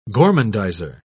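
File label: "gormandizer", English, British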